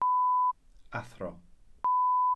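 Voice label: "athro", Welsh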